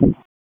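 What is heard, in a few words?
Raleigh Police Northeast District Tactical; wake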